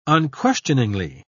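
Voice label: "unquestioningly", British English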